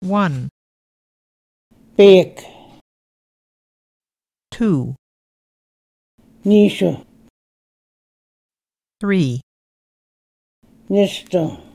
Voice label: Moose Cree numbers; Linguistic Atlas

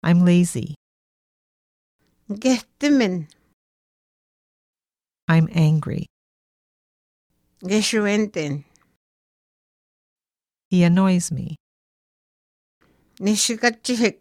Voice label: Swampy Cree feelings; Linguistic Atlas

